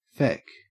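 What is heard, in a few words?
"feck", Australian English; pronunciations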